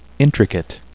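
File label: "intricate", English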